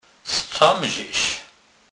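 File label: "z camzes", Kurpie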